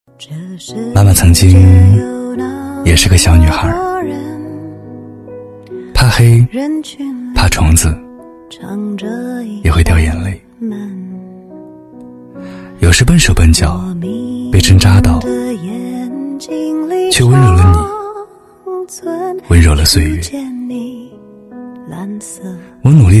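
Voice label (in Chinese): 男国大音【走心旁白】走心独白-妈妈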